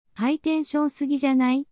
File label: anime, japanese anime and internet slang